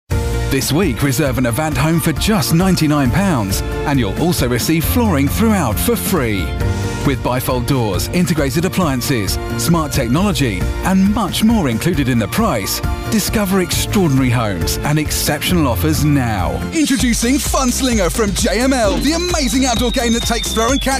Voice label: commercial